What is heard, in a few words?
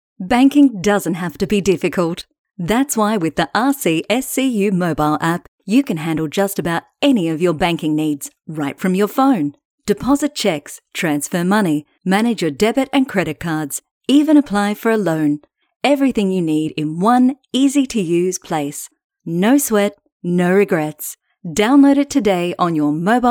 Professional